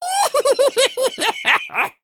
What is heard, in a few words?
Male Imp Attack